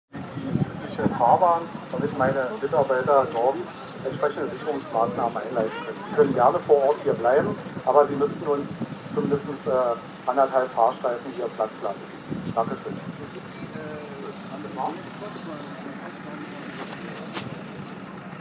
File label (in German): letzte Durchsage der Polizei vor der Räumung